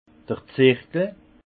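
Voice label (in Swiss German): "zirkel", Haut Rhin; vin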